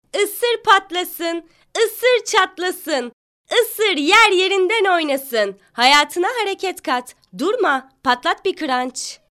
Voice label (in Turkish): reklam